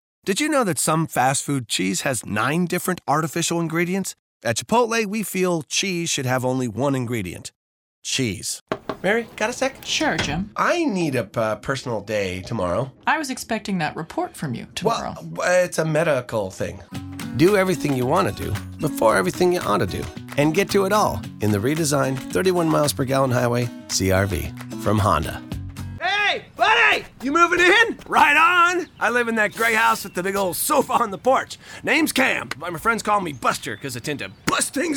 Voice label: commercial